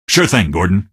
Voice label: squad affirm, male; Garry's Mod; hl2

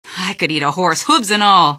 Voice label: question, female; Garry's Mod; hl2

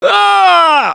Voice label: Hurt Critical, russian; Gambler

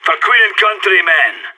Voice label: radio lock n load, player vo sas

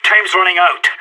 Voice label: radio bot time, player vo sas

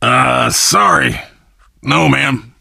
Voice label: initial meeting, survivor voice biker

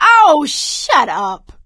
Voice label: taunt, survivor voice; Left 4 Dead 2; producer

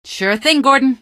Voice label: squad affirm, female; npc; Garry's Mod; hl2

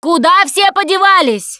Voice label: lost call, russian, Survivor Voice Producer

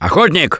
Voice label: Warn Hunter, russian; Gambler

